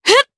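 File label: Vox Casting, Japanese; kingsraid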